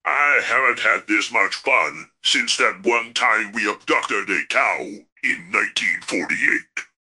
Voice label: happy, speech; fertig classic games